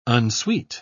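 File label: "unsweet", British English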